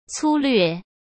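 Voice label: "粗略", Mandarin Chinese; hsk; chinese-flashcards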